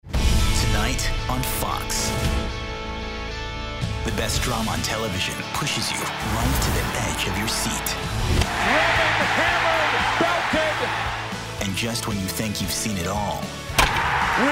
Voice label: Network Promo Trailers

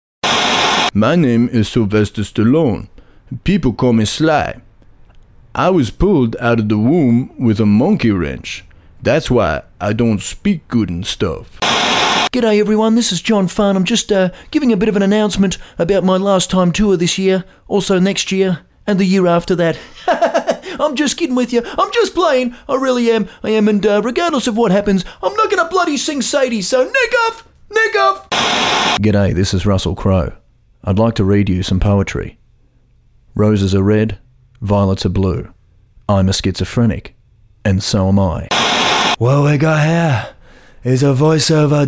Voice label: Impressions

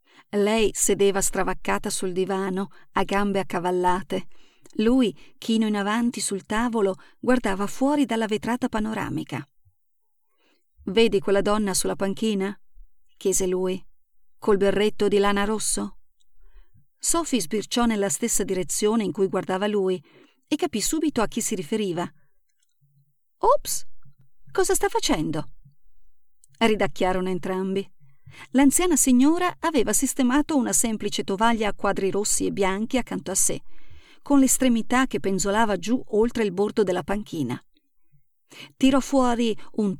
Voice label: audiobook

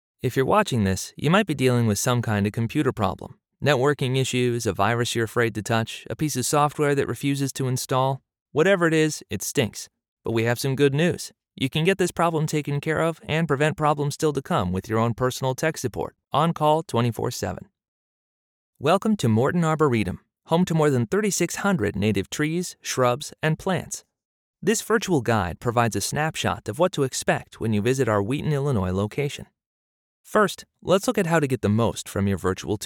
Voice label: Narrator Sample

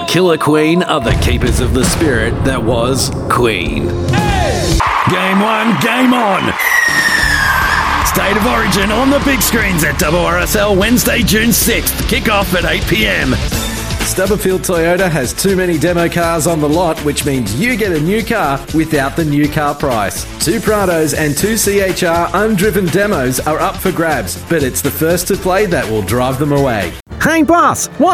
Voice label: Commercial No intro

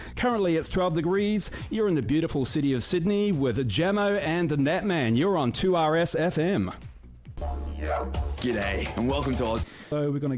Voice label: Air Check

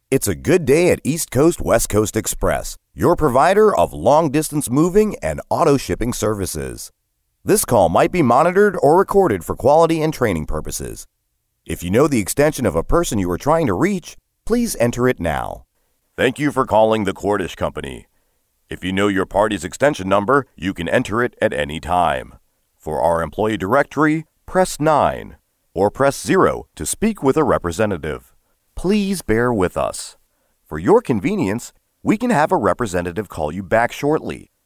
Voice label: Phone-IVR-OnHold

